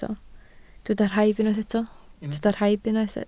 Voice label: "rhaib", Welsh